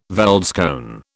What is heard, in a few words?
"veldskoen", British